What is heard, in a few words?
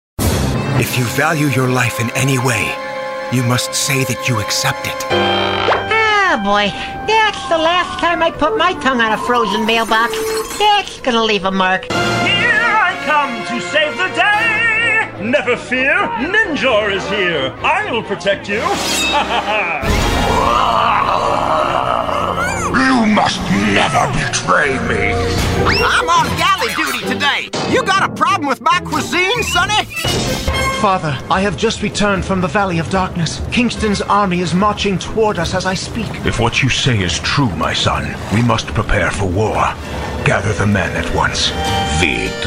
animation demo